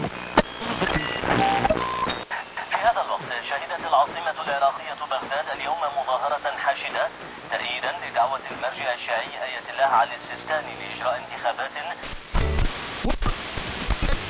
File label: arabic radio, ambient chatter; Counter Strike Model Pack; CS:S